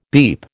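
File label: "beep", American English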